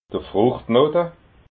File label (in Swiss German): "fruchtknota", alsace